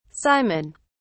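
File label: "simon", British English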